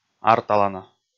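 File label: "арталана", Komi, male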